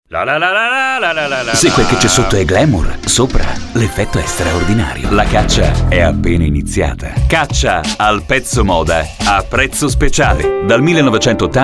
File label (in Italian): speaker maschile